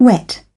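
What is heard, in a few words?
"wet", British English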